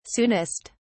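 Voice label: "soonest", British English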